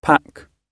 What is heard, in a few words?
"pack", gb